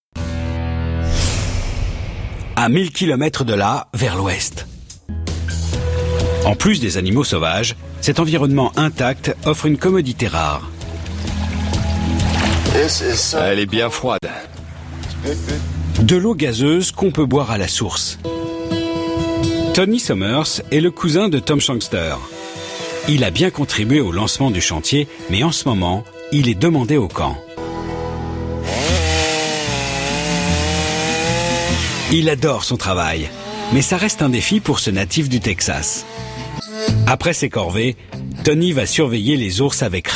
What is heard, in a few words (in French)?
Voix Off; RMC Découverte; Constructions hors limite